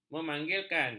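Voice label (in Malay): "memanggilkan", Malay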